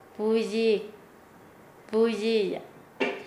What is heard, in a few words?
Nasa Yuwe; pelear; paez